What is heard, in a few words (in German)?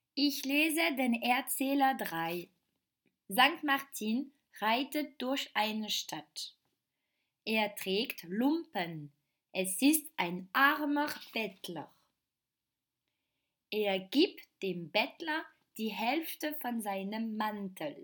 Erzähler